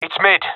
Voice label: loc mid, professional epic; vo; Source Model Packs; Counter Strike Model Pack; CS2